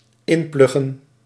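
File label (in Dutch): "inpluggen", Dutch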